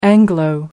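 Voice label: "anglo", British English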